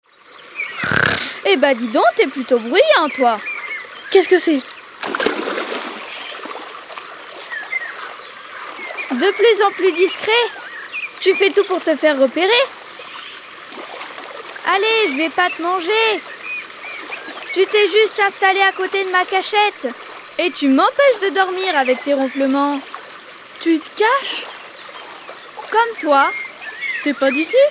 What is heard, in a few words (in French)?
Histoire; Le val des castor